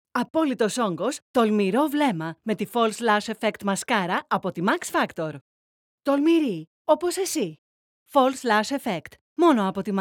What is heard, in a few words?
Greek Voiceover; MAXFACTOR FalseLash